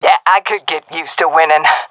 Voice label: round won, seal fem; vo; Counter Strike Model Pack; CS:GO